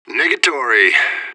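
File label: radio bot response negative; fbihrt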